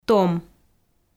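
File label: "том", Komi, female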